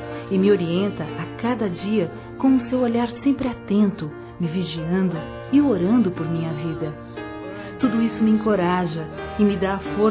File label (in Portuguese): MÃE VOCÊ É PRECIOSA F, Telemensagens, Dia das Mães; Telemensagens 2017